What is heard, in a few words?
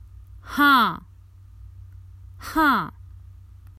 Common Hindi Phrases for travel Yes